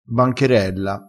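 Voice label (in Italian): "bancherella", dialettale crespinese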